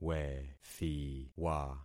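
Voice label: "wethiwa", concatenated syllables; Affixing experiment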